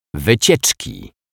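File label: "wycieczki", Polish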